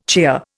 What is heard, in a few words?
"cheer", Indian